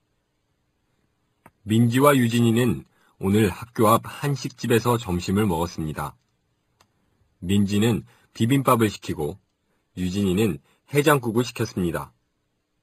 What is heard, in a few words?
Korean Narration; DLI; Lesson 14 - Narration